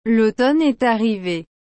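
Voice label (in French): "l'automne est arrivé", French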